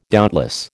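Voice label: "doubtless", American English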